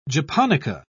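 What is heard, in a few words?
"japonica", British English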